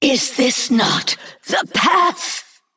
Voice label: Female Naga Death

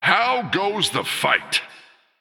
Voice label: Male Human General

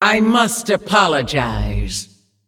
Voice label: Female Demon Sorry

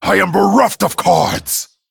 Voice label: Male Dragon No Cards

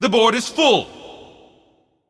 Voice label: Male Void Elf Emote ERROR FULL MINIONS

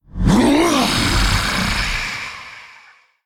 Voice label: Male Monster Death

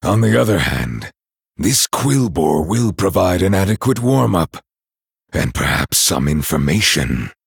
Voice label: Male Night Elf; Kurtrus; Story Kurtrus Mission3 Exchange C

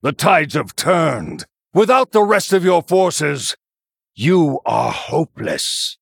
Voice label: Male Human Exchange; Uther

